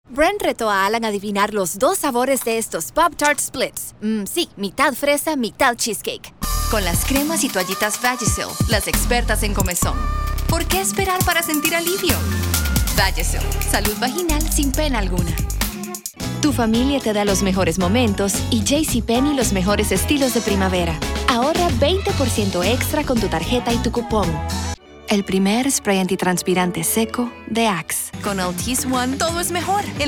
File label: spanish latin american commercial